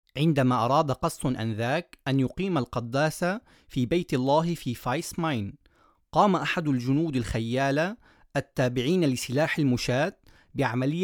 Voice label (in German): Arabisch